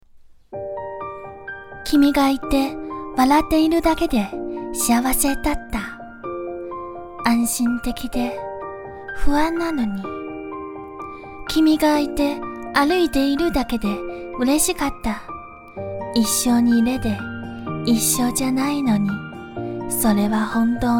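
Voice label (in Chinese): 日语温柔甜美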